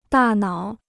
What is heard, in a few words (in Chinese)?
"大脑", Chinese